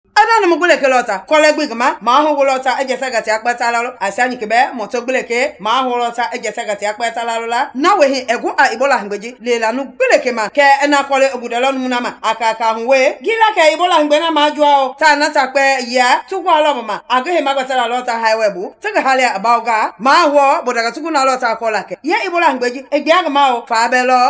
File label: Mende; ebola; radio-spots